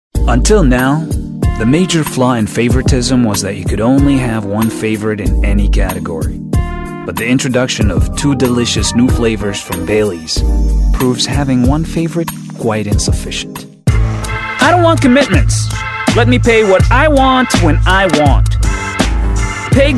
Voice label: english american commercial